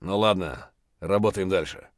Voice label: mutter, voice; Escape from Tarkov